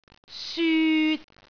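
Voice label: "syut3", Cantonese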